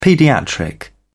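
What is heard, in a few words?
"paediatric", British English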